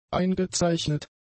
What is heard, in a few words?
"eingezeichnet", German; adjectives